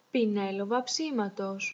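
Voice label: paintbrush large description Greek; tuxpaint-stamps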